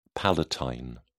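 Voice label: "palatine", British English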